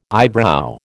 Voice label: "eyebrow", American

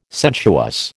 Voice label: "sensuous", American

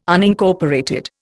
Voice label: "Unincorporated", Indian

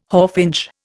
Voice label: "hawfinch", Indian

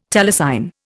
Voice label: "telecine", Indian

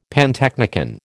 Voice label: "pantechnicon", American

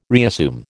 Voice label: "reassume", American

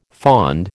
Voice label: "fond", American

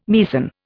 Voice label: "Meissen", Indian

